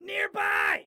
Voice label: Warn Enemy Nearby, Excited Guy

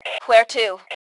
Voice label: Need Where To, Female; T2 Female 01

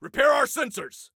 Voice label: Repair Sensors, Soldier Male

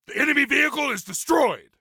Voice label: Enemy Vehicle, Soldier Male; Soldier Male 03

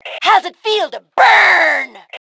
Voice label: Taunt Sarcasm, Female

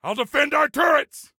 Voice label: Self Defend Turrets, Soldier Male; Soldier Male 03

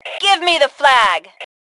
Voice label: Flag Give Me, Female; T2 Female 01

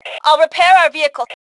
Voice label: Self Repair Vehicle, Female; T2 Female 01

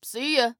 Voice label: Bye, Female

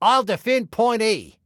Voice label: DEFEND, Male

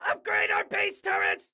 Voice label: Upgrade Turret, Excited Guy

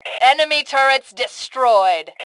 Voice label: Enemy Turrets, Female; T2 Female 03

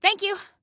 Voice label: Team Thanks, Female; Female 02

